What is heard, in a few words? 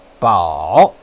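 "bao3", Mandarin Chinese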